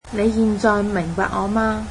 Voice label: cantonese do you understand me now

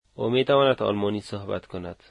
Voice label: she can speak German, Dari

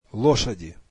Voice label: "horses", russian

